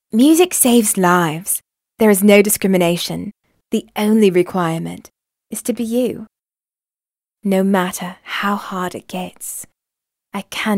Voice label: British